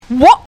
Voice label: wha loud